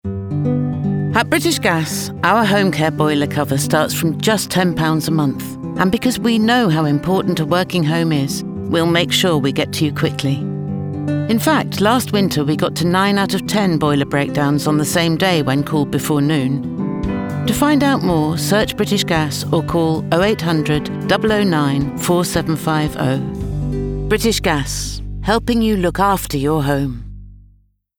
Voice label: Informative, Warm, Engaging; British Gas